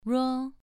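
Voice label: "ruo", Mandarin Chinese syllable, second tone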